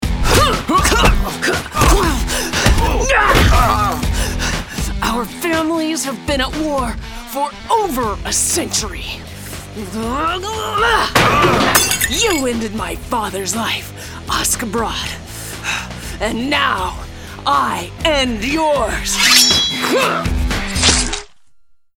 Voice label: english american video games